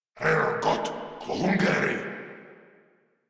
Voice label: Player Spotted, Grineer Male